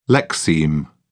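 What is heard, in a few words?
"lexeme", British English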